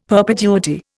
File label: "perpetuity", Indian; Dictionary